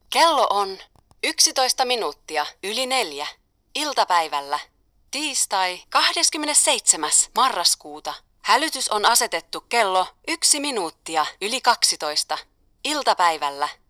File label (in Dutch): Fi vrouw